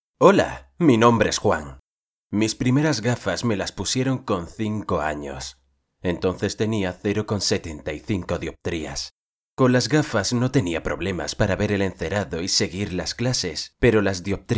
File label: Male, Spanish